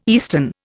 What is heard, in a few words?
"eastern", Indian